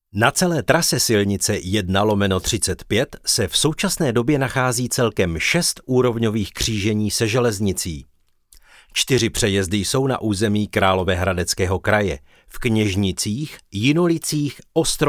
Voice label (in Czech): audio spot dabing